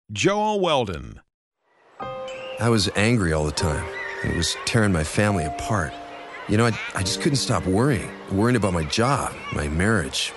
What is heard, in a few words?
SERIOUS